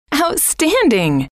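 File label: "outstanding", female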